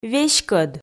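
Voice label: "веськӧд", Komi, female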